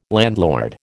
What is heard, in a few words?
"landlord", American